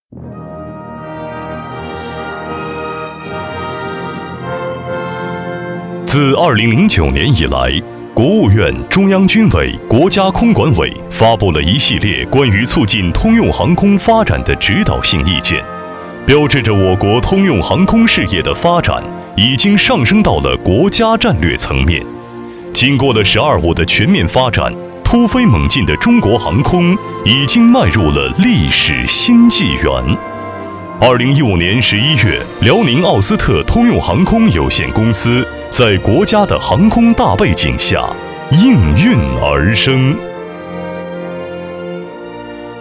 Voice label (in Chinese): 男 专题; 辽宁奥斯特通航